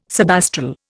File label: "subastral", Indian